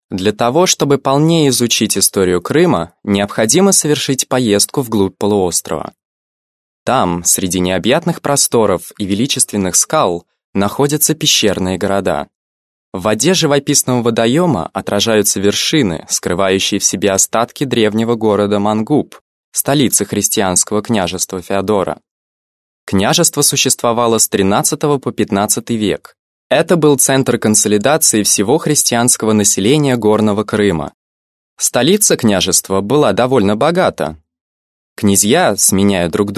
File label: russian male